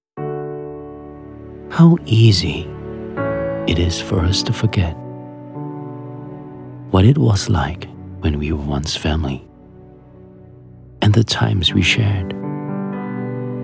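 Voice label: ENG Announcer Emotive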